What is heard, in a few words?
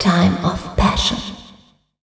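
vocal female, Dance Vocals, spoken words; Best Service ProSamples vol.12; AKAI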